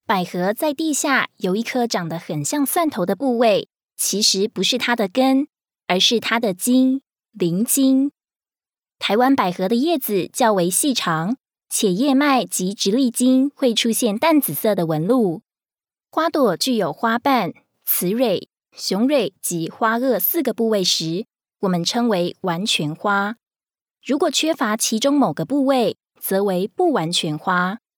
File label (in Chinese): 國語 女